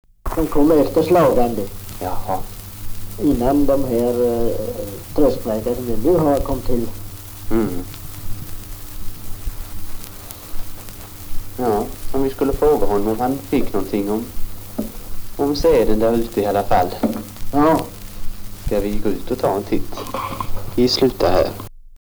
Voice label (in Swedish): Svenska sagor, dialekter; Folkminnen